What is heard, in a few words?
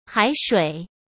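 "海水", Mandarin Chinese; chinese flashcards; hsk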